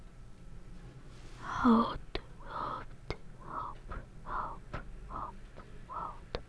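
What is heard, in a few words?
"hold", spoken word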